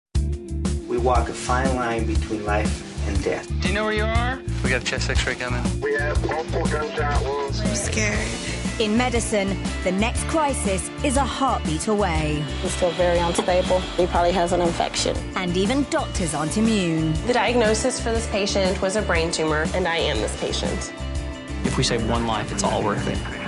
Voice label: MEDICAL EMERGENCY URGENT SERIOUS